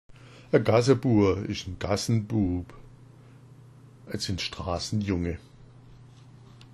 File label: gassabua, schwaebisch